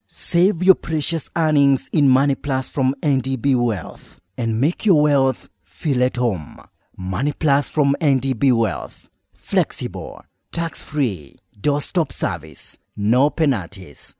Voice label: male african voice over sales, african english